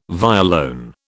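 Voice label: "violone", British English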